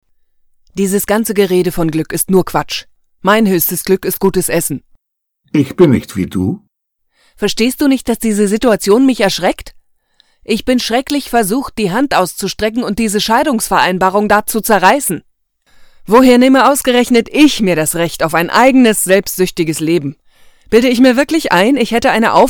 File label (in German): hoerspiel; szenen einer ehe